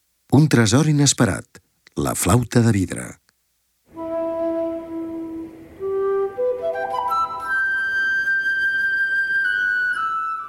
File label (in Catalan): audioguia catala; delamusica